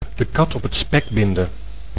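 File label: "de kat op het spek binden", Dutch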